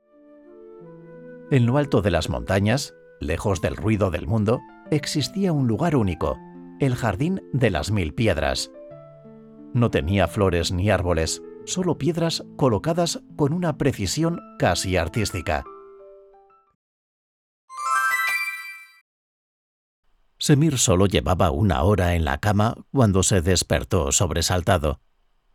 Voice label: spanish audiobooks

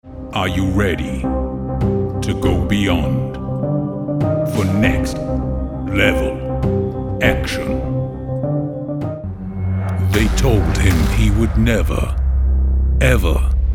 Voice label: english british movie trailers

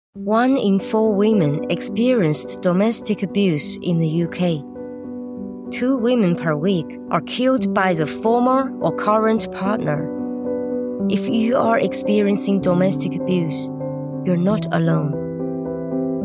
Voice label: english commercial

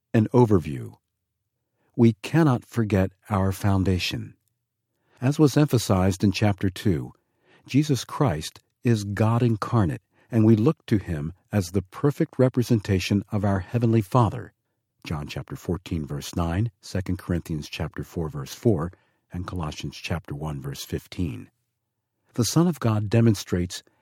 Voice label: english american audiobooks

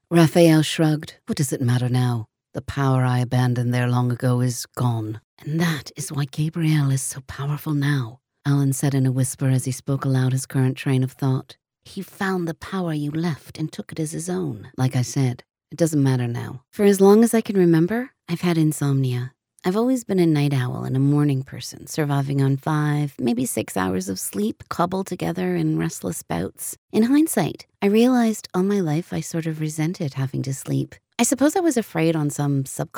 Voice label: english american audiobooks

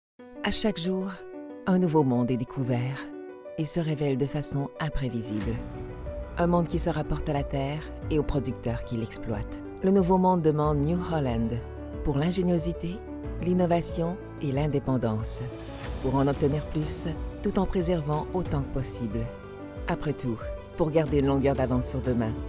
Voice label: french canadian commercial

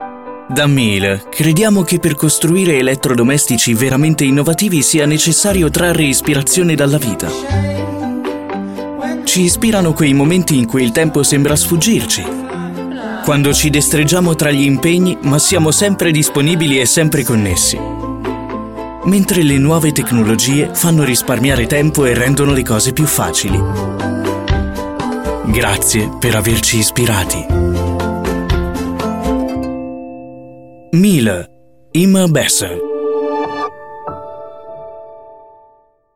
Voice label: italian corporate videos

